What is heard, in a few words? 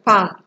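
"pem", Nigerian Pidgin, female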